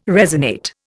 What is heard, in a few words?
"resonate", Indian